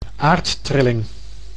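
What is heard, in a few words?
"aardtrilling", Dutch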